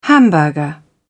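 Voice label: "hamburger", British English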